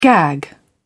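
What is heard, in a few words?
"gag", British English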